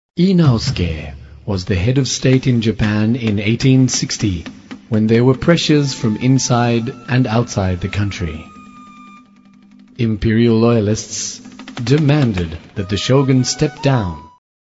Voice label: narration

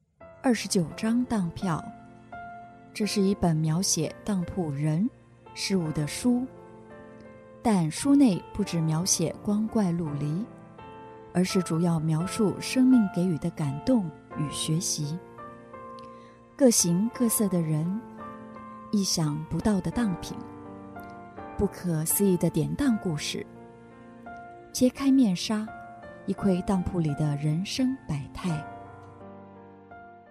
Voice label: poem

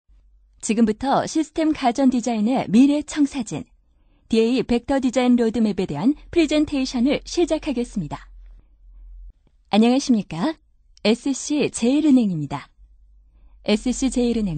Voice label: korean f

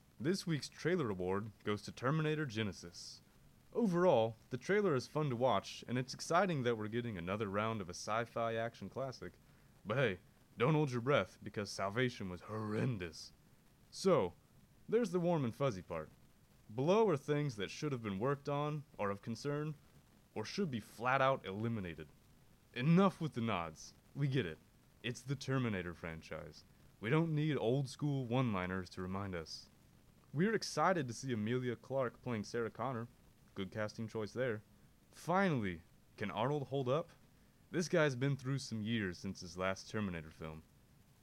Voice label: radio

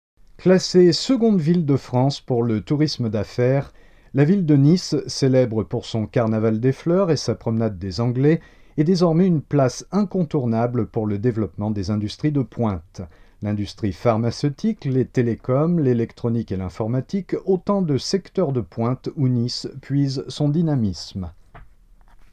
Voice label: emphatic

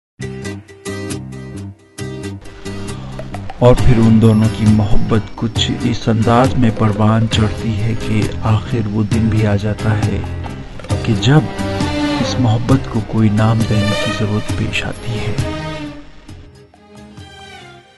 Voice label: urdu

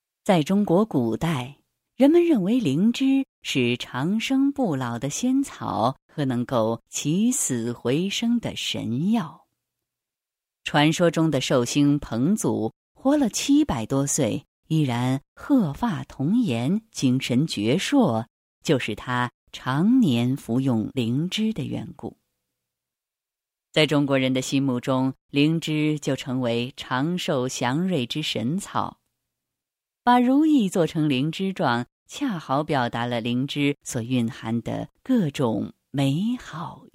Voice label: story

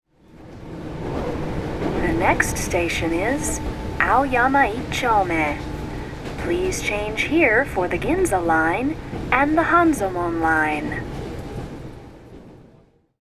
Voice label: announce

